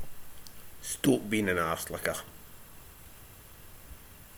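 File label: "bein", slang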